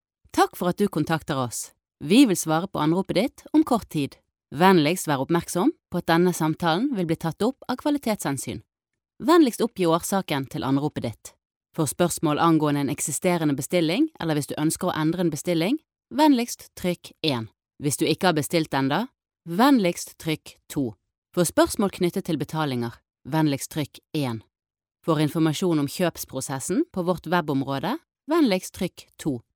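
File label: Norwegian, female, norwegian voice over talent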